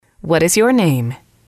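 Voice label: what is your name, English, female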